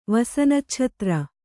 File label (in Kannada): "ವಸನಚ್ಛತ್ರ", kannada